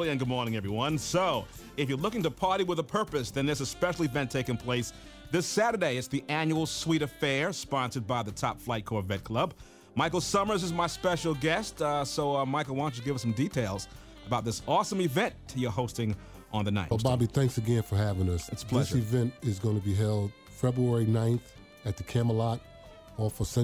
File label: Air Check